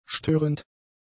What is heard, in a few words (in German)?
"störend", German; adjectives